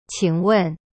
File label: "请问" qing wen, chinese